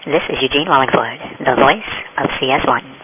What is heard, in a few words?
the voice clipped and pitched